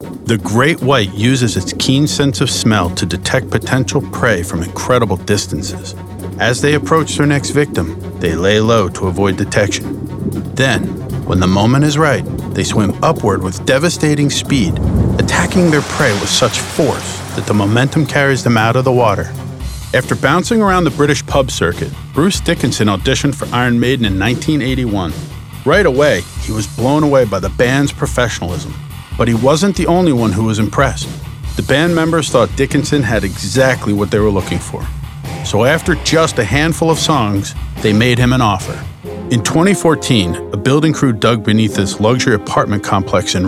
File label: Narration